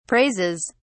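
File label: "praises", English, British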